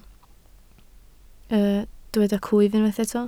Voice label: "clwyf", Welsh

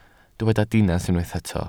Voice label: "dinas", welsh

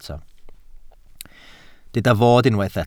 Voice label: "fod", Welsh

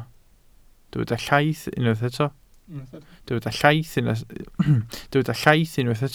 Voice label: "llaith", Welsh

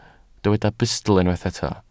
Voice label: "bustl", welsh

